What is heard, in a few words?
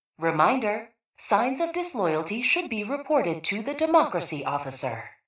PA System Disloyalty Reminder